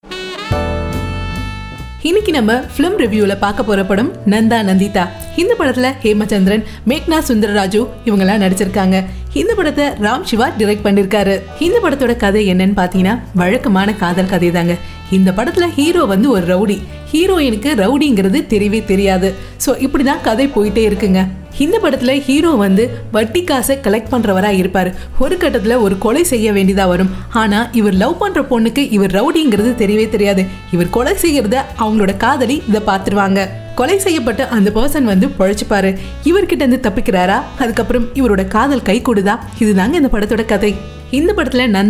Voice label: narration tamil